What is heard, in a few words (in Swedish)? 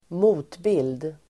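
"motbild", Swedish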